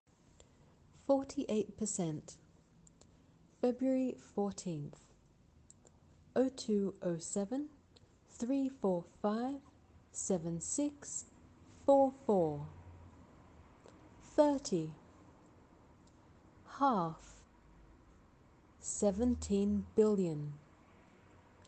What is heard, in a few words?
Australian